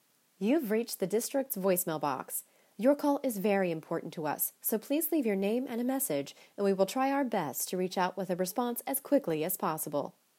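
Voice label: default voicemail; You've reached the district's voicemail box. Your call is very important to us, so please leave your name and a message and we wil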